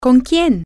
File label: with whom, spanish, F